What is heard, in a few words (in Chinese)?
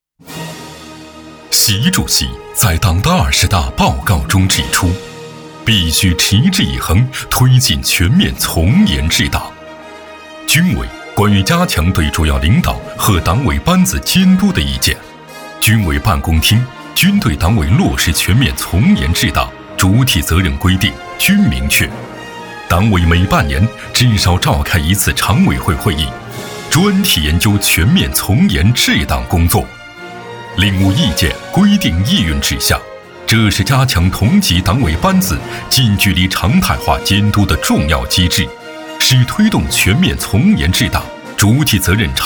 男 专题 军队 片 大气厚重; 从严治党